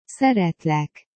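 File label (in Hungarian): "szeretlek", Hungarian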